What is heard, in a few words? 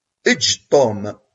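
"Ṭṭfemt-t-id!", Kabyle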